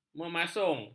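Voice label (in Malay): "memasung", Malay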